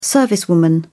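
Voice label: "servicewoman", British English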